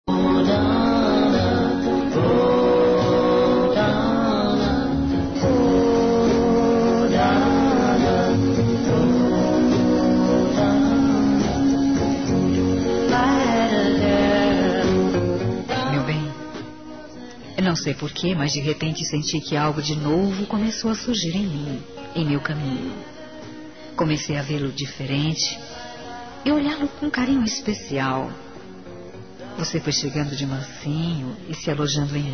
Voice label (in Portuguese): quero TE CONHECE LO MELHOR, F; Telemensagens; Pedidos